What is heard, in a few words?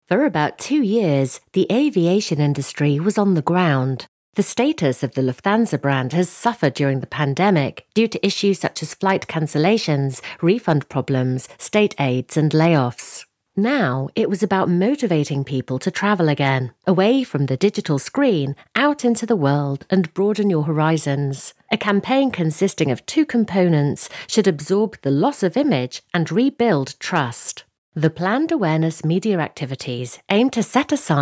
documentary